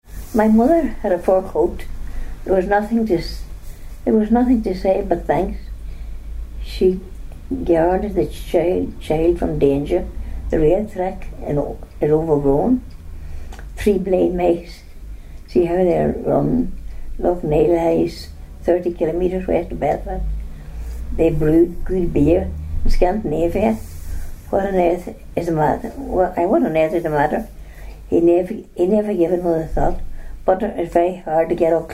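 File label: passage, dialects ni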